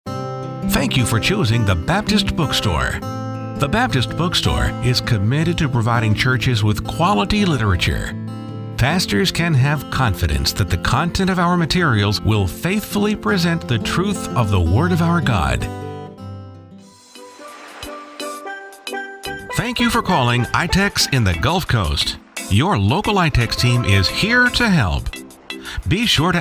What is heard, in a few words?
on hold; On Hold Demo #1